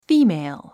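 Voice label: "female", English; 発音 fíːmeil フィーメィゥ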